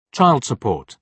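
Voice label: "child support", British English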